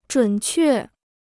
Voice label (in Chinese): "准确", Chinese